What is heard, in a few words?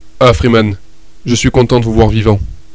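"bonjour", French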